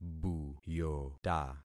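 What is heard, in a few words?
"buyota", concatenated syllables; Affixing experiment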